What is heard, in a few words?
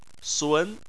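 "sun", Mandarin Chinese, second tone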